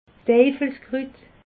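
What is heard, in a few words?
"teifelskrut", Alsatian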